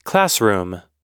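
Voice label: "classroom", English; oxford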